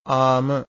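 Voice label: "aama", Pali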